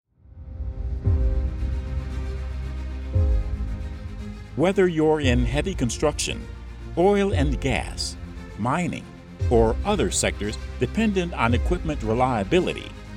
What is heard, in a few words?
Corporate Narration VO